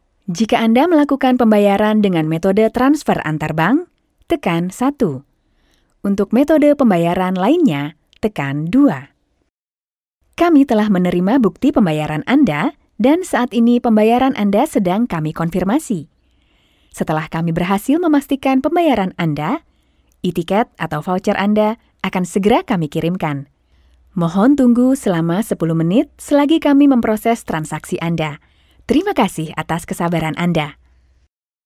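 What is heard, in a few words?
Indonesian IVR; GoLocalise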